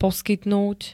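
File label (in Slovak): "poskytnúť", Slovak; dať (k dispozícii), venovať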